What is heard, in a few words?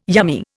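"yummy", Indian English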